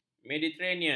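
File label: "mediterranean", Malay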